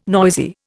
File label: "noisy", Indian